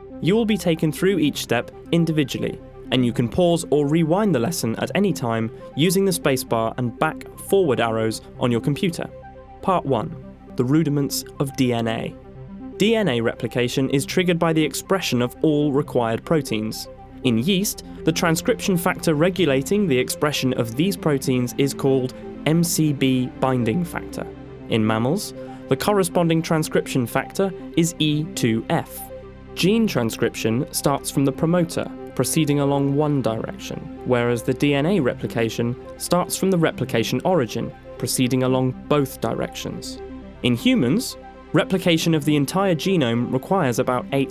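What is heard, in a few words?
E Learning microbiology, American English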